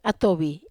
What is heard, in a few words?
"atovi", Wamesa